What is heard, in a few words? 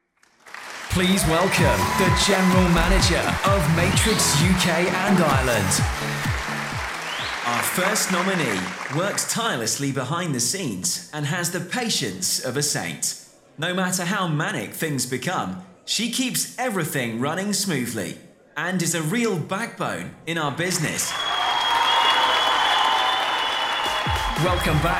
VOICE OF GOD AWARD CEREMONIES